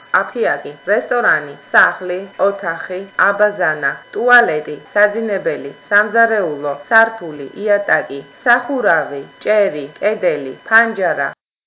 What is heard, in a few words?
georgian